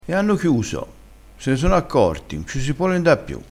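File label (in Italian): "marva", dialettale crespinese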